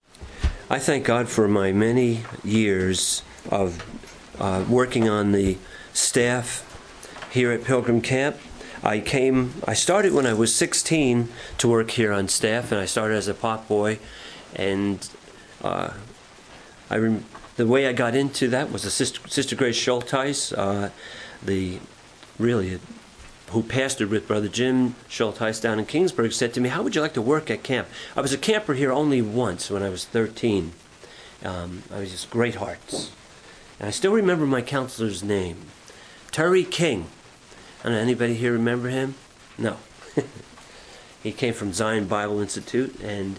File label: Message- Joshua 9, Luke 14